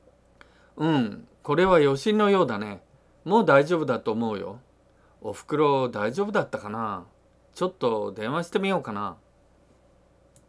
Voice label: dialogue line, japanese